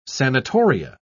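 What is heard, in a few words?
"sanatoria", British English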